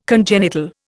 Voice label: "congenital", Indian